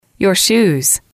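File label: your shoes, female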